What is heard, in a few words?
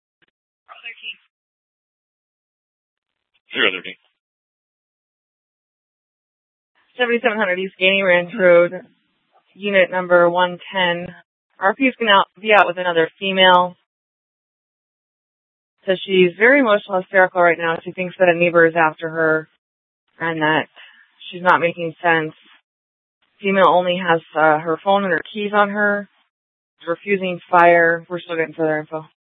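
Police Department North Dispatch, scanner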